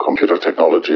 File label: LoFi Phrases, LoFi Vocals; Vengeance Samples